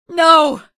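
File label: citizen buddy killed, female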